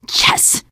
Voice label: hurrah, teen girl, survivor voice